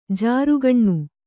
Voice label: "ಜರಕ್ಕನೆ", Kannada